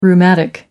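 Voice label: "rheumatic", British English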